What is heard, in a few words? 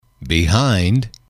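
"behind", male, English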